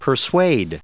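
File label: "persuade", us English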